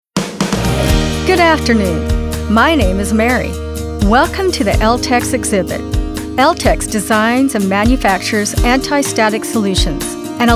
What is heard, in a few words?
Narration